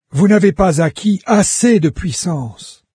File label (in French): low power, VOICES fr NARRATOR; Fate